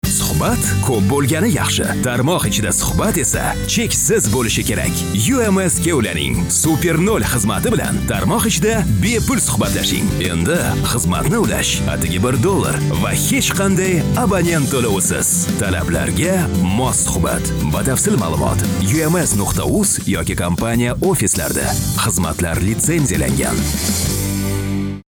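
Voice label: male Uzbek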